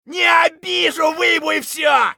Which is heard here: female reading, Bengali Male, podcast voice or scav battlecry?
scav battlecry